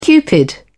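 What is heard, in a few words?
"cupid", British English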